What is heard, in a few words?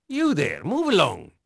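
Dutch male, voice; Pirates Of The Caribbean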